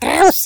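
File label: "Coward", Russian; hedgewars; Default ru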